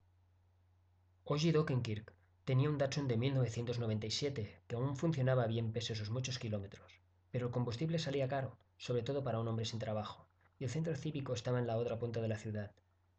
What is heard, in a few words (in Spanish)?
Prueba castellano